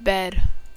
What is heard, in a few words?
"bed", Serbian; Serbian Alphabet with Pronunciation